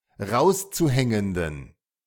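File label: "rauszuhängenden", German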